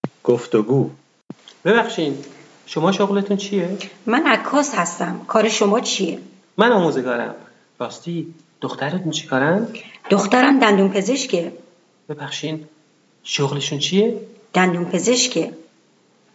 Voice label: Dialog, persian